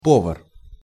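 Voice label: "povar", Russian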